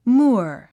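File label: "moor", English word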